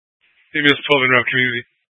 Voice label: Fire Dispatch, scanner

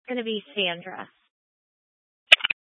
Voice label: Law Enforcement Channel; Garfield County; Channel 2; Glenwood Springs PD

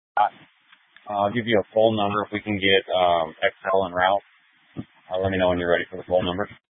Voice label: Fire Dispatch, scanner

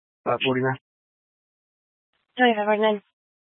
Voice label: Sheriff Dispatch; Mesa County

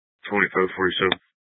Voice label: Mesa County Sheriff Dispatch

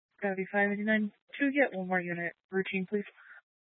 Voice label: Police Dispatch, scanner; Police 1 Dispatch

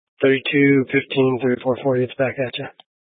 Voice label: Police 1 Dispatch

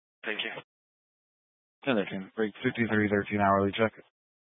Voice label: Sheriff Dispatch; Mesa County

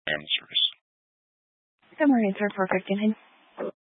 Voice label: Mesa County Sheriff Dispatch

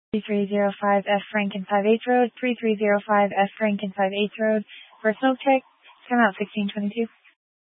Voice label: Grand Junction Fire Department Dispatch

